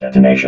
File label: "detonation", robot